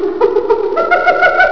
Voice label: jabber; ANIMALS